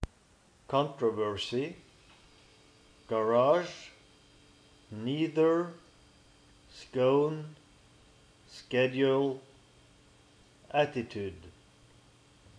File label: drammen norway male